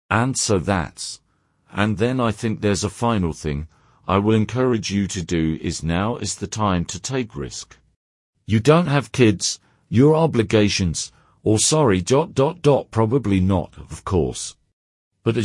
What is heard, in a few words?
elder